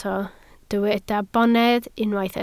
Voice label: "bonedd", Welsh

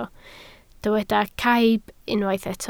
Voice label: "caib", Welsh

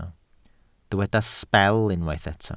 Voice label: "sbel" Welsh

